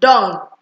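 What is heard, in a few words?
"done", Nigerian Pidgin, female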